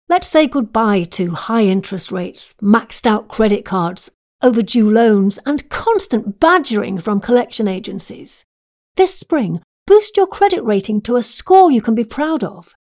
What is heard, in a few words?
Commercial